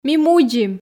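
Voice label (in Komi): "ми мудзим", Komi, female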